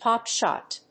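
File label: "pop shot", English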